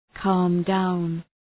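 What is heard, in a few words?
"calm down", English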